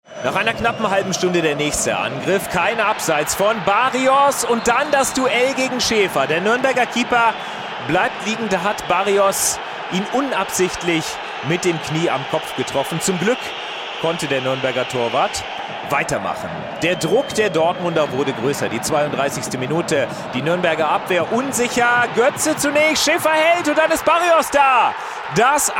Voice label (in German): Berlin Reporter Fussball Medium